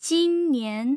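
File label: "jinnian", Mandarin Chinese, tone pairs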